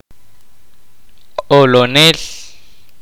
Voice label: "Clown", Kaqchikel